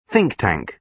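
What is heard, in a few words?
"think-tank", British English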